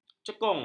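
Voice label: "cekung", Malay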